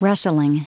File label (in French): "rustling", anglais